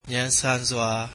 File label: "quickly", Burmese